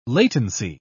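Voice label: "latency", British English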